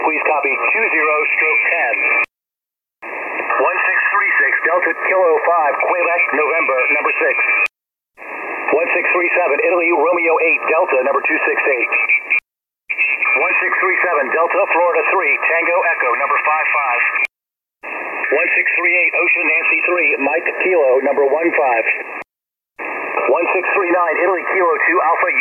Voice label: ssb, contest